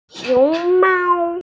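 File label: "xiongmao", Mandarin Chinese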